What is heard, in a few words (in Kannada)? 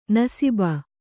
"ನಸೀಬು", Kannada